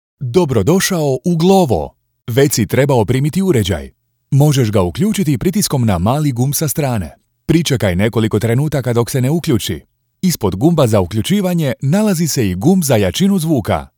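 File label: Croatian male elearning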